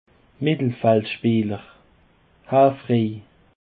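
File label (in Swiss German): "mettelfeldspeeler", Alsatian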